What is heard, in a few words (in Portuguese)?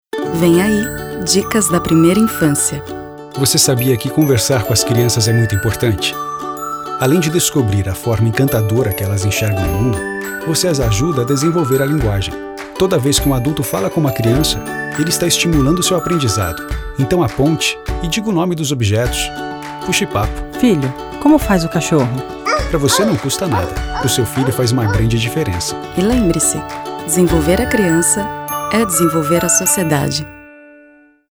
Spot; Interação